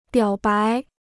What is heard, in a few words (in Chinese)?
"表白", Chinese